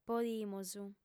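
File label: "podimus", Sardinian, female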